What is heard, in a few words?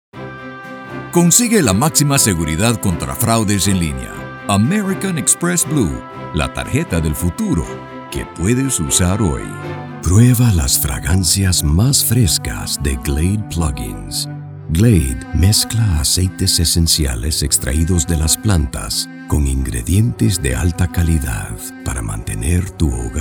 Male Voice Over Talents Foreign Spanish